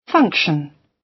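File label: "function", British; Language, linguistics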